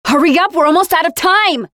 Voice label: Timer closing, Voiceovers; Project Argo